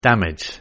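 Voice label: "damage", English word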